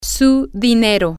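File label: money, Spanish, female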